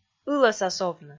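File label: "ылӧсас овны", Komi, female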